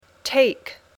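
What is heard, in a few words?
"take", English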